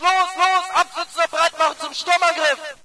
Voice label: ger start round; player; Source Engine; dod

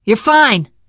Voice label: revive friend, survivor voice teen girl

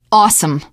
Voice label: hurrah, teen girl, survivor voice

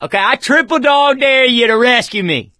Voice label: call for rescue, survivor voice; source engine; left 4 dead 2

